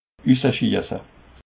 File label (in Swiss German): "ussaschiesse", alsace